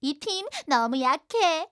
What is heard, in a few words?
ui yell